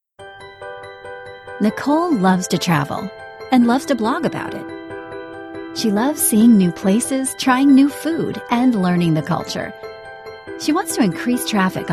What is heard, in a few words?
Explainer Casual Upbeat Friendly